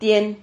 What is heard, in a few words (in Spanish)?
"tien", Silabas